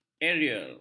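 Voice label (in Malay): "aerial", Malay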